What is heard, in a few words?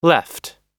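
"left", US English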